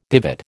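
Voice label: "divot", American English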